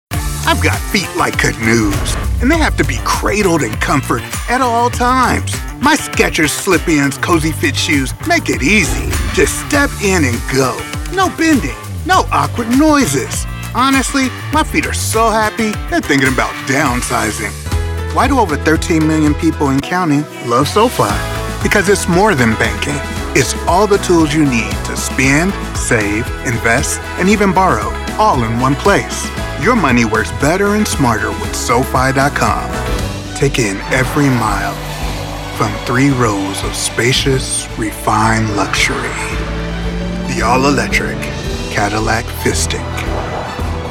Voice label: Commercials